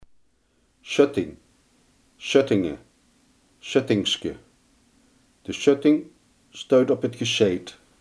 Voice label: "schutting", Limburgs

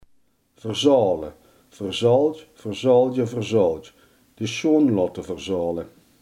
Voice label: "verzolen", limburgse

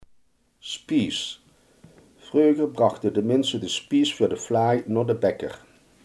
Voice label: "spijs", Limburgs

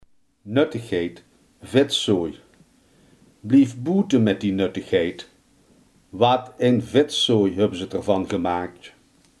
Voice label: "viezigheid", limburgse

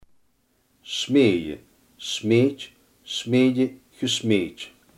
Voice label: "smeden", Limburgs

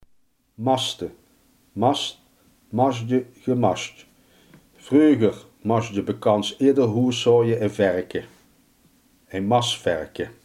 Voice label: "vetmesten", limburgse

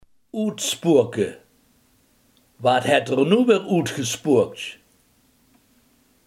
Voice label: "uitspoken", limburgse

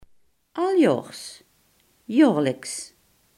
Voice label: "jaarlijks", Limburgs

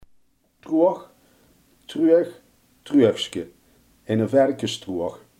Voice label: "voederbak", limburgse

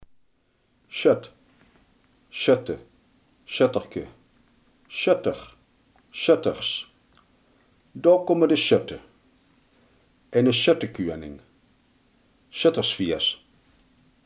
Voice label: "schutter", Limburgs

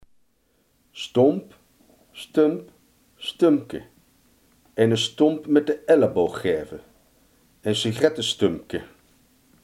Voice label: "stomp", Limburgs

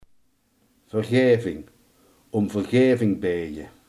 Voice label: "vergiffenis", limburgse

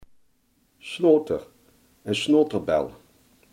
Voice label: "snot", Limburgs

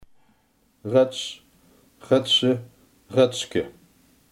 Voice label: "voorn", limburgse

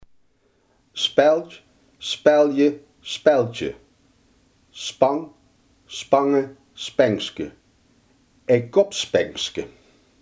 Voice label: "speld", Limburgs

